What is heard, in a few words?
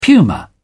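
"puma", British English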